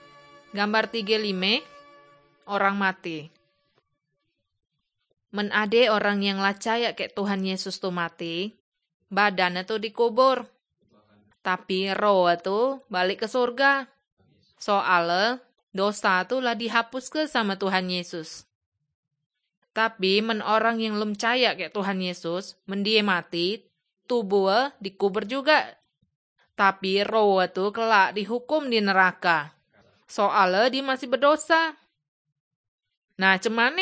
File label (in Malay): kabar baik good news malay bangka